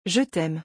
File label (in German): Französisch, "Ich liebe dich"